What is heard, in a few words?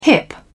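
"hip", British English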